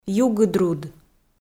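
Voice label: "югыдруд", Komi, female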